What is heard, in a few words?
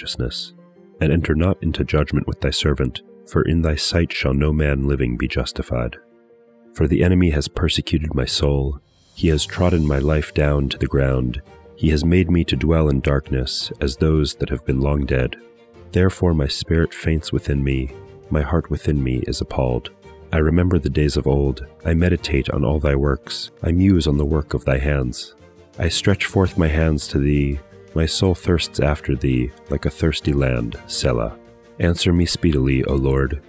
poetry and prayer psalm english